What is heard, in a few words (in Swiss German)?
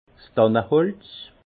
"danneholz", alsace; vin; sapin